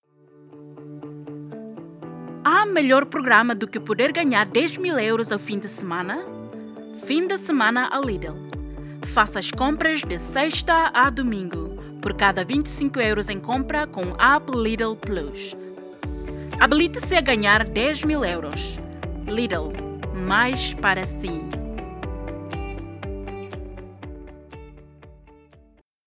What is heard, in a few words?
PORTUGESE